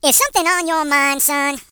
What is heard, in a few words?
Nutter, HillBilly; hedgewars